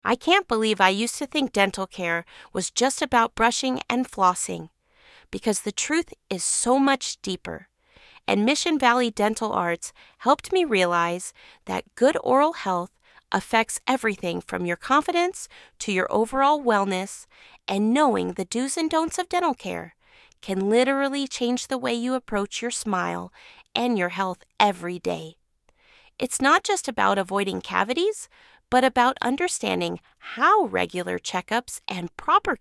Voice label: voiceover; Top 3 Quick Dental Tips; Mission Valley Dental Arts; Bust common dental myths and learn simple tips to improve your oral health every day.; Kaylin AI